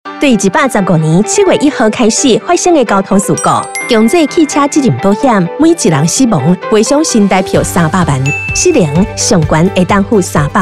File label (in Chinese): 台語